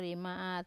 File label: "rime at", nine, wamesa